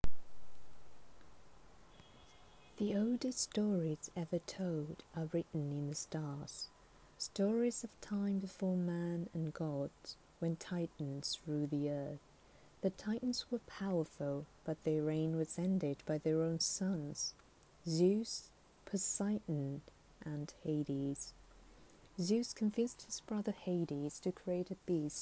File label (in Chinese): 英语角色配音 温柔治愈, 女; 诸神之战